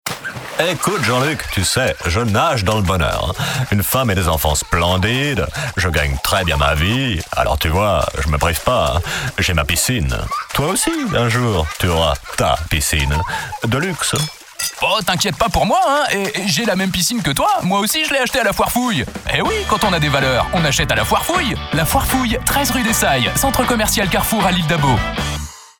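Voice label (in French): spot, spots radio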